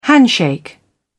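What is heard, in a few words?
"handshake", British English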